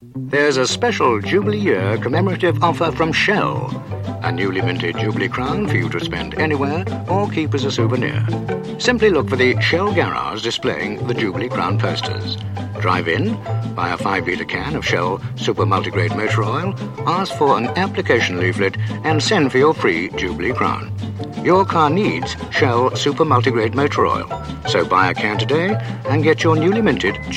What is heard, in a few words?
Radio Hallam Advert; Shell - Jubilee